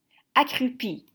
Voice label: "Accroupi", French

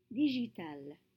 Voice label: "digitales", French

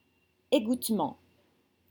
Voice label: "égouttement", French

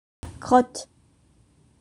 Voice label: "crotte", French

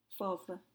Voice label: "fauve", French; wildcat

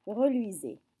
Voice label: "reluisaient", French

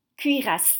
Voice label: "Cuirasse", French

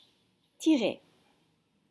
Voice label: "tiré", French

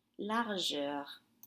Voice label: "largeur", French; width

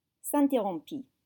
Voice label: "s'interrompit", French

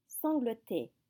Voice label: "sangloter", French

